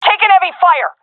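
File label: pinned, female; vo; Counter Strike Model Pack; CS:GO; seal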